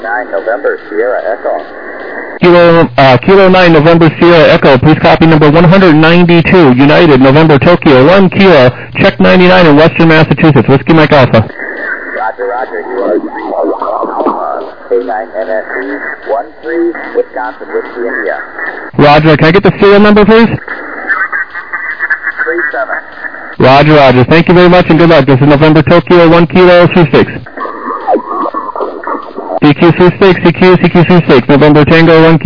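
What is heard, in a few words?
upper sideband 21MHz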